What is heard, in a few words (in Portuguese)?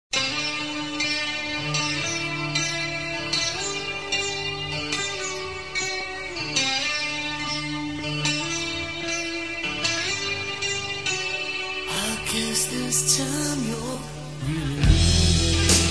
Romântica Com Paquera